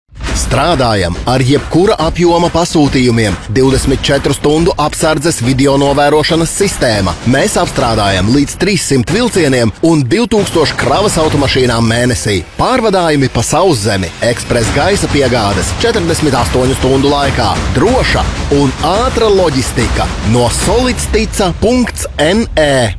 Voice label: 拉脱维亚语男样音

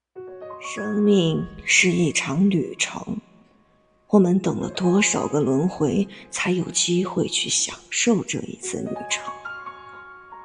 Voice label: 老年音念白

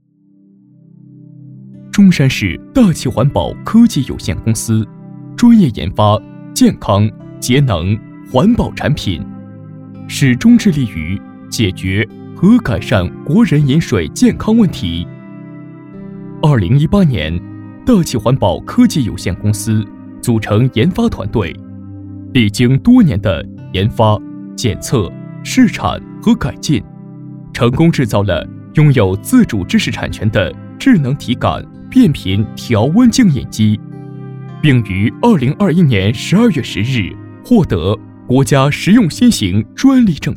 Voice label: 宣传; 中山市大器环保科技公司

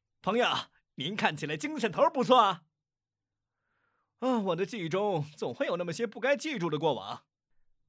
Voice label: 热情青年

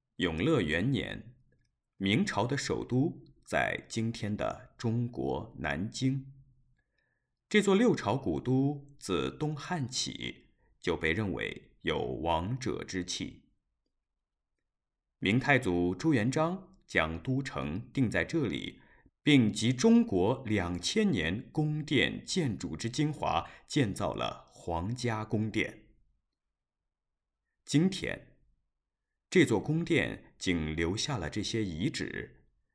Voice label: 纪录片中低音

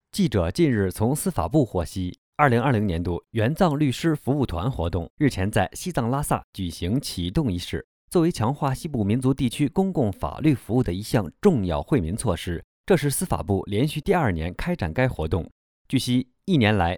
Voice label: 新闻示范

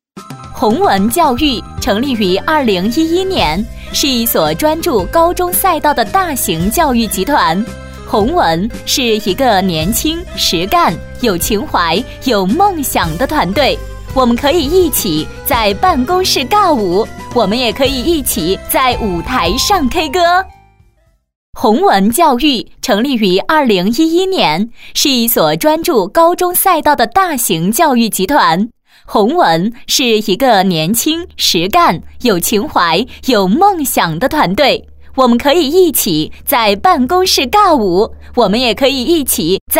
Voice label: 广告 甜美; 鸿文教育集团

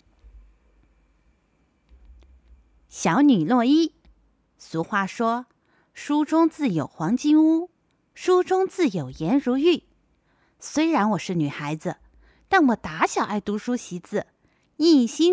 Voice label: 情景剧

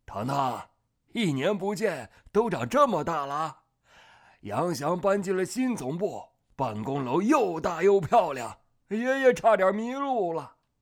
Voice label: 电视剧类老爷子

